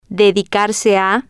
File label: "dedicarse a", Spanish